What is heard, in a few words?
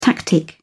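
"tactic", British English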